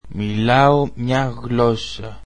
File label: greek I speak one language